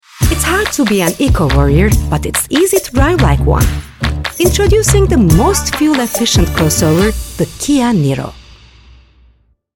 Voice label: reklam enerjik dinamik neseli; kia

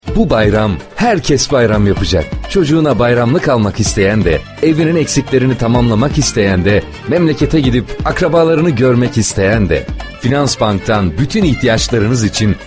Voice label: reklam neseli karizmatik; finansbank

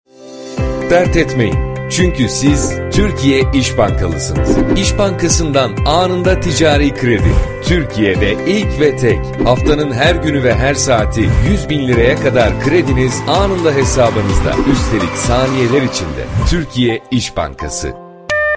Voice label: reklam kurumsal neseli karizmatik; isbankasi

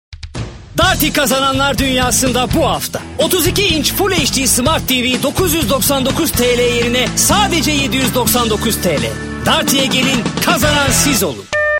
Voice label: reklam dinamik enerjik neseli; darty

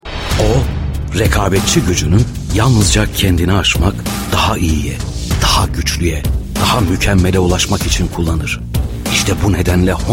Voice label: emotion otoriter

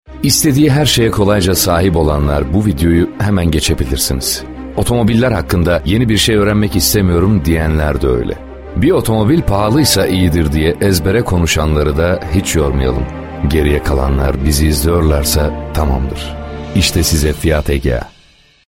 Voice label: reklam karizmatik otoriter sakin; fiategea

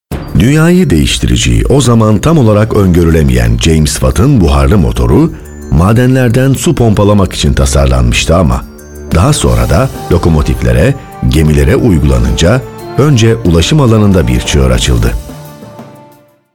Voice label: belgesel; jameswatt

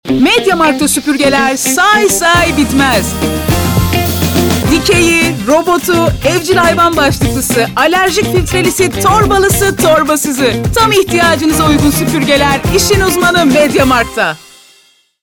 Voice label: reklam; mediamarkt

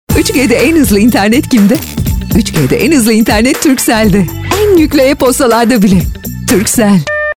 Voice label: reklam neseli enerjik dinamik; turkcell

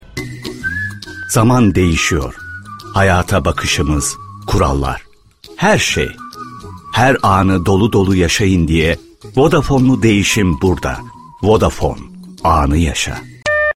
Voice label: reklam karizmatik; vodafone

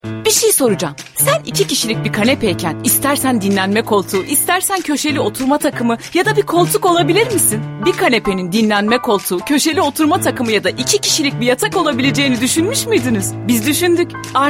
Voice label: reklam ilgicekici dinamik; arstil

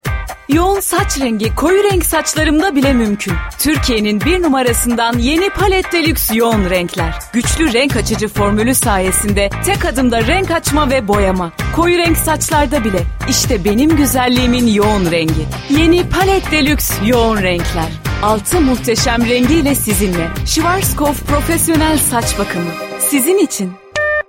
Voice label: reklam gunluk enerjik; palette

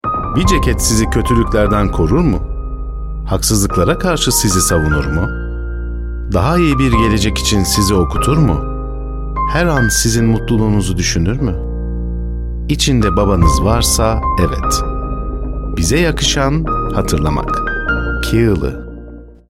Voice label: reklam drama; kigili

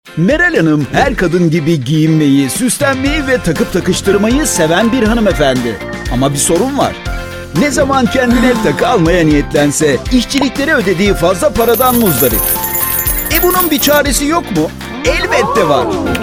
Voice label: reklam neseli; bilezikci demo

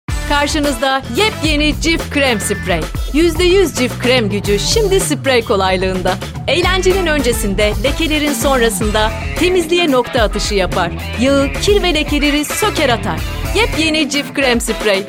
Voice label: reklam dinamik iknaedici enerjik; cif

